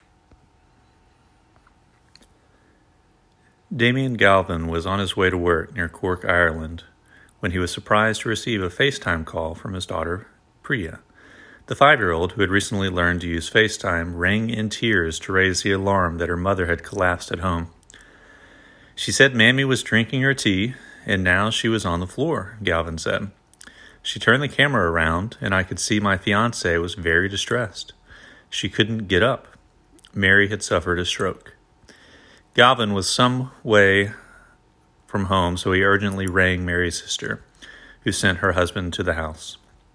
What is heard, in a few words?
News North American